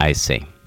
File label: "i see", male